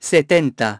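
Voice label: "seventy", English; iOS 4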